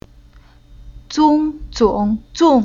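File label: "Zong", Chinese syllable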